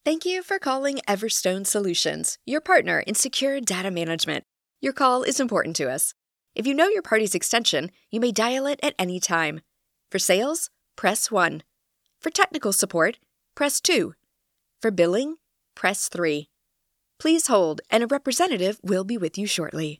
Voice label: Telephone, Phone Queue Prompt; Everstone Solutions